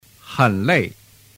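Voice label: "henlei", Chinese; doi dau giong; giao trinh 301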